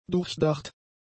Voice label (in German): "durchdacht", German; adjectives